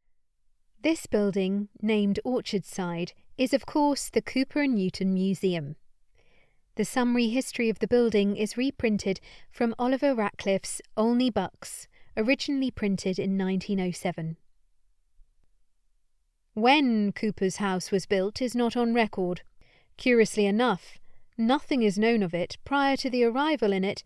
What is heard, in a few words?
voiceover; ORCHARDSIDE THE COWPER NEWTON MUSEUM